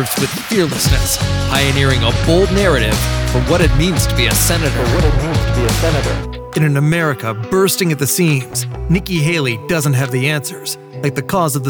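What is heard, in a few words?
Democrat Political Ads, male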